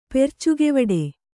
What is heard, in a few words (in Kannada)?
"ಪೆರ್ಚುಗೆವಡೆ", Kannada; percugevaḍe; = ಪೆರ್ಚುಗೆಗೊಳ್.